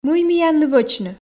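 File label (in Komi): мый миянлы вӧчны, Komi, female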